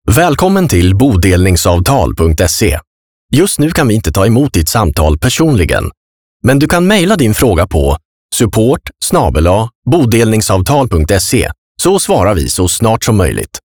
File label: IVR Message